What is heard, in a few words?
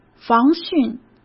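"防汛", Mandarin Chinese; chinese-flashcards; hsk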